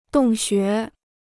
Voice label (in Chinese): "洞穴", Chinese